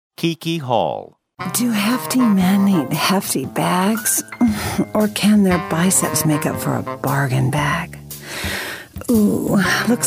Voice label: VO DEMOS Women